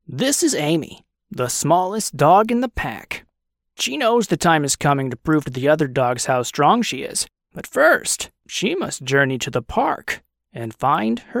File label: Movie Trailer